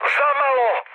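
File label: Serbian bomb failure mood high, speech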